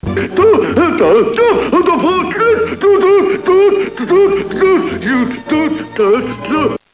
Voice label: confused